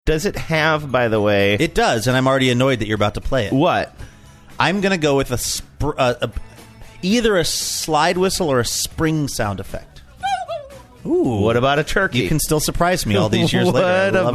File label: anticipating annoyed might play slide whistle